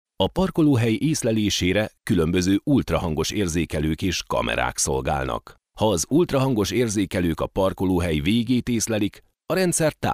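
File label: Hungarian, male, narration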